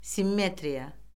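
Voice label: "simmetriya", Azerbaijani; Orfoqrafiya Lüğəti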